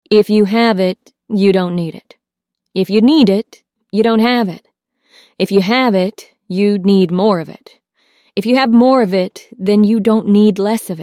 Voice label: female, Ads; sledge you need it